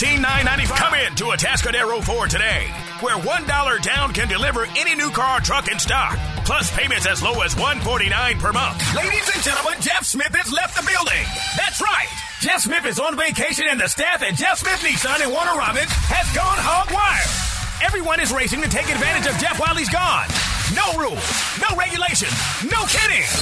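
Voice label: Auto Dealer, voice over commercials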